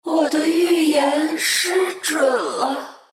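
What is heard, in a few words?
death, Chinese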